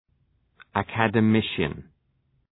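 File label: "academician", English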